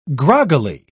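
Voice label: "groggily", British English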